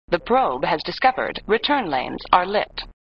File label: Voice Computer; Computer The Probe has discovered return lanes are lit; flipper; sttng